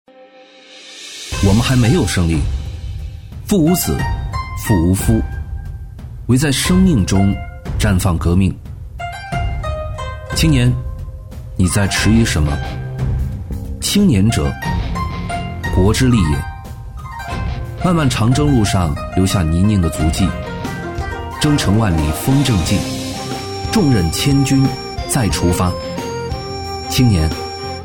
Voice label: 男 年轻专题 轻松 自然; 质感大气

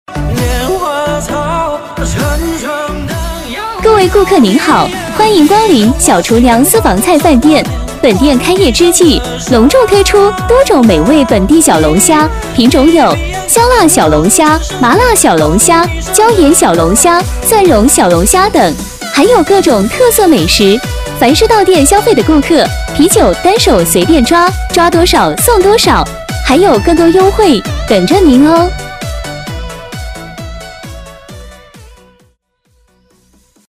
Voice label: 激情甜美女 美味小龙虾新店开业; 激情力度

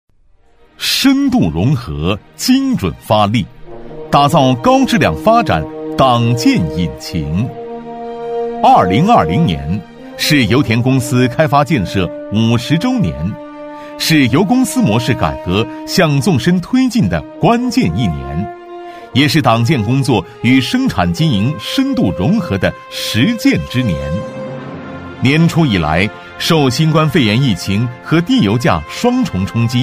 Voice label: 男 党建专题 厚重激昂; 油田公司; 稳重磁性; 大气激昂

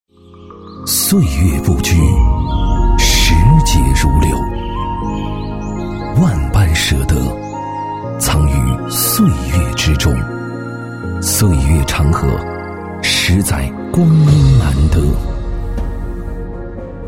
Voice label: 男 广告 磁性深沉; 质感大气 质感磁性; 舍得酒

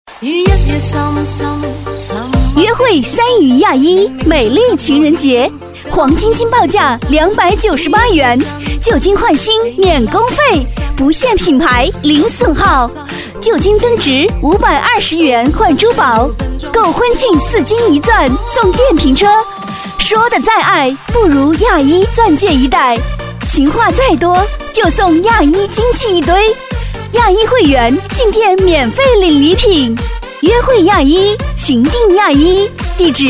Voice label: 女 金店 激情有力; 甜美激情; 激情力度

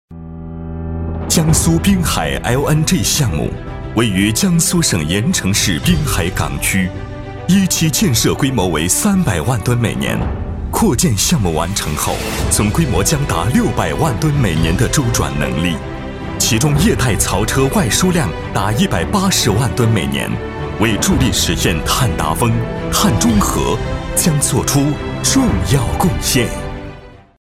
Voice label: 男 文案 大气硬朗; 滨海; 厚重磁性 大气浑厚

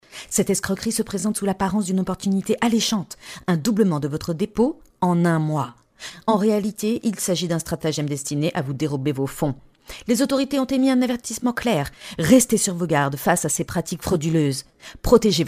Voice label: 法语女声

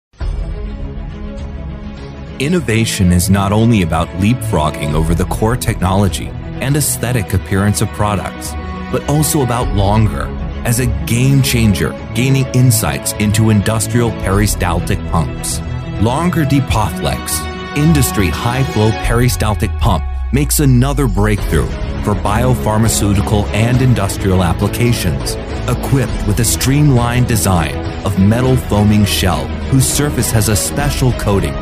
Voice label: 英式美式 外籍 宣传风格

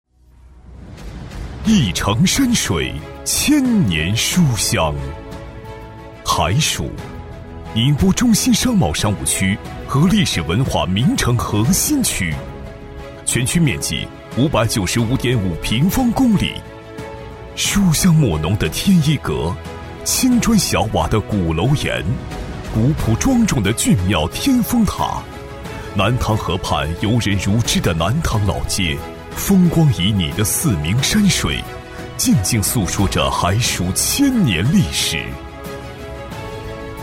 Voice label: 男 浑厚大气, 厚重有力 大气浑厚

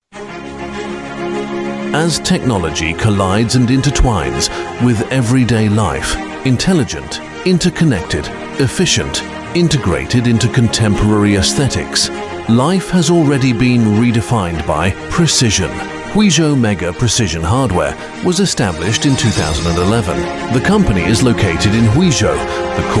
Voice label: 英式男声 宣传介绍

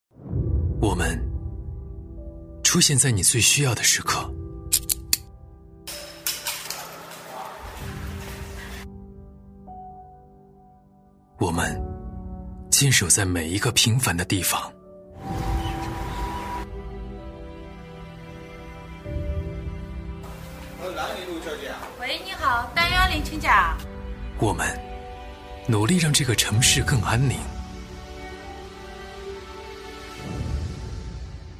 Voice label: 男, 励志宣传片, 磁性走心; 男185-时尚质感 质感磁性; 公安警察

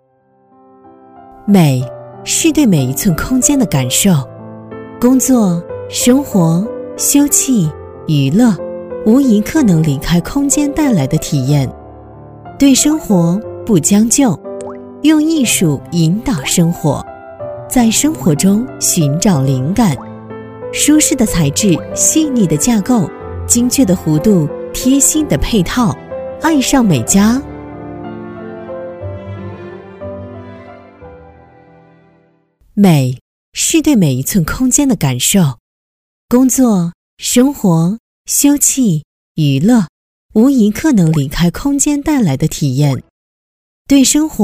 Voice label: 女-产品广告【唯美高端】; 质感磁性

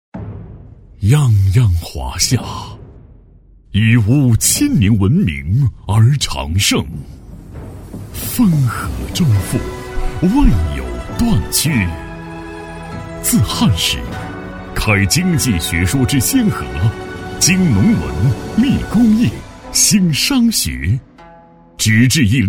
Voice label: 男 国风 大气浑厚

